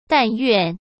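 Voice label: "但愿", Mandarin Chinese; hsk; chinese-flashcards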